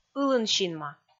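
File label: "ылын синма", Komi, female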